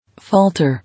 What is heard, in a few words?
"Falter", English